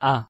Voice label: "a" pronunciation es